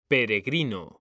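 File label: "peregrino", Spanish; mekanta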